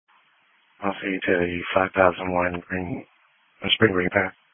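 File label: Police Patrol Dispatch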